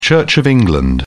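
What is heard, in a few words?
"church of england", British English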